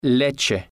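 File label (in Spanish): "leche", spanish, male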